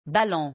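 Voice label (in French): "ballon", French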